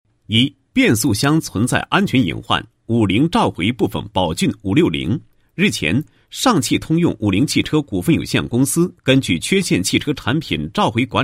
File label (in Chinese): 男, 新闻; 变速箱